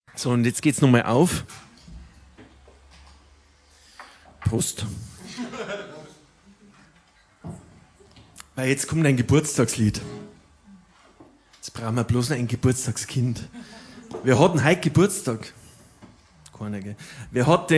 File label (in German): Ansage